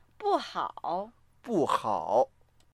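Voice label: "bu4hao3", Mandarin Chinese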